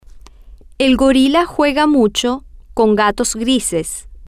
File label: el Gorila Juega Mucho Con Gatos Grises, Spanish